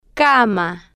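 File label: "cama", Spanish, slow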